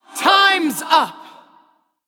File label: Female Spirit Healer Attack